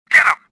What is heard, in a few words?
anger; XBOX; stofficer